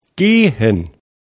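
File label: "gehen", German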